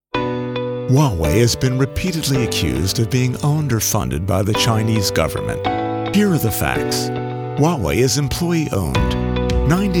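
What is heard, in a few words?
Narration and E-Learning with Music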